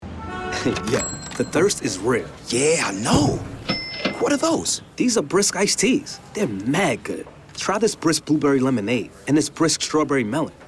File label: National Radio Spot; Lipton Brisk